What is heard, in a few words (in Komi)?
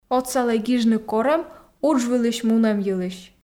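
отсалӧй гижны корӧм удж вылысь мунӧм йылысь, Komi, female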